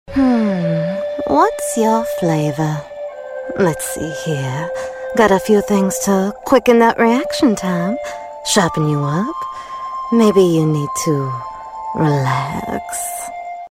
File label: Sultry southern; video game